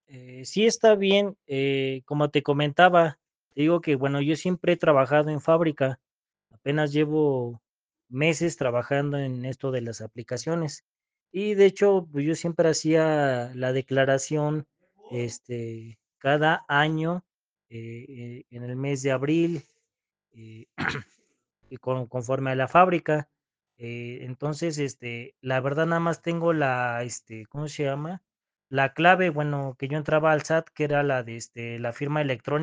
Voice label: whatsapp ptt